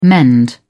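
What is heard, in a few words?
"mend", British English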